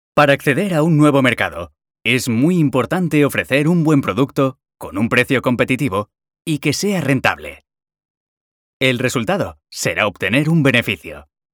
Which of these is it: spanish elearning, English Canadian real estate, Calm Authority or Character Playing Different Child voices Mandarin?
spanish elearning